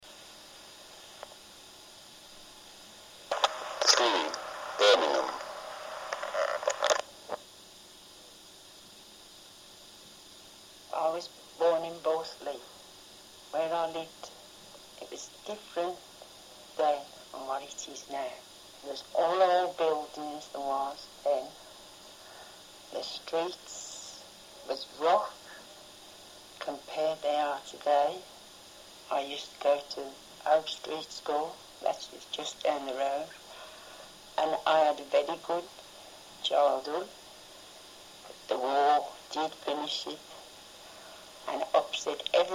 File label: Birmingham, english accents; West Midlands